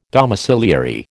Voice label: "domiciliary", American

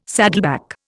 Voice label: "saddleback", Indian

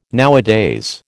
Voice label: "nowadays", American

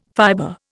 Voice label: "fiber", Indian

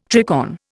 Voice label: "tricorn", Indian

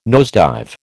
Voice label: "nosedive", American

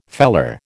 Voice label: "feller", American

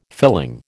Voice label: "filling", American

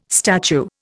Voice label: "statue", Indian

